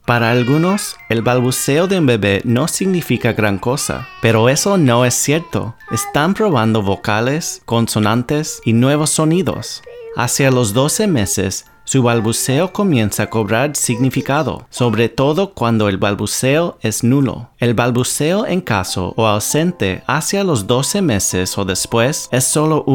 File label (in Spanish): Radio Span; Autism Speaks